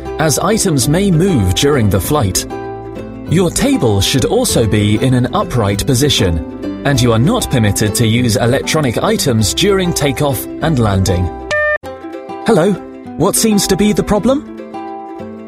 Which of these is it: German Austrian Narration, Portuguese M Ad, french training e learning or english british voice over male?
english british voice over male